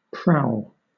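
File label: "prowl", British English; pronunciations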